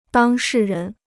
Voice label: "当事人", Chinese

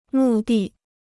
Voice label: "目的", Chinese

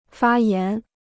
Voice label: "发炎", Chinese

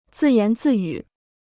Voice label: "自言自语", Chinese

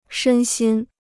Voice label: "身心", Chinese

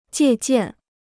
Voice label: "借鉴", Chinese

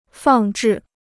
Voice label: "放置", Chinese

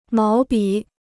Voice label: "毛笔", Chinese

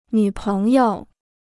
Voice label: "女朋友", Chinese

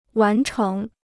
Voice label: "完成", Chinese

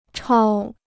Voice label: "超", Chinese

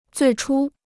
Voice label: "最初", Chinese

